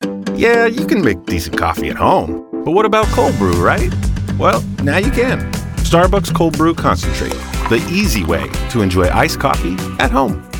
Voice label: Commercial; Starbucks